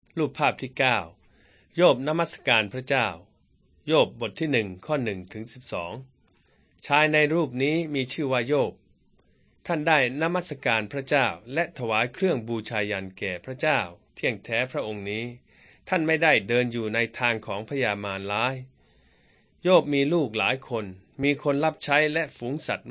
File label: beginning with god thai central